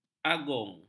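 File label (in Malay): "agung", Malay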